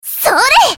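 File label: character voice Attack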